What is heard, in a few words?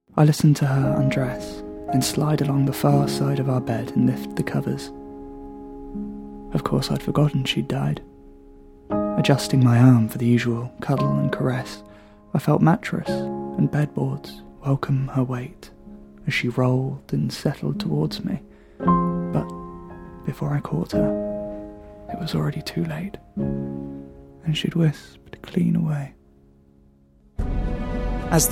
Voice Narration